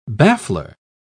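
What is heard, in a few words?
"baffler", British English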